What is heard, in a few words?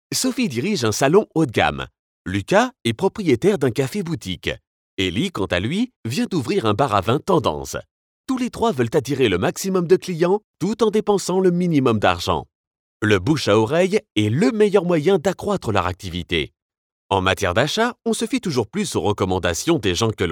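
French commercial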